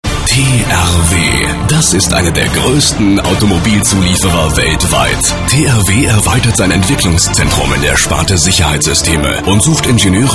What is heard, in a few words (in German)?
Funkspot; 7mal7